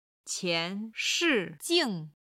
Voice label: "qian2 shi4 jing4", chinese

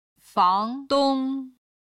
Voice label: "fangdong", Chinese

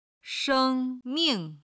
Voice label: "sheng1ming4", Chinese

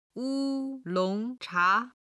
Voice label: "wu1long2cha2", Chinese

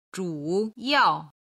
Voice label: "zhu3yao4", chinese

